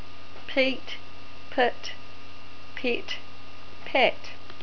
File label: "Pete", NZ English